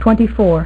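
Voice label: "twenty-four", female; MacCribbage; InterStellar MacCribbage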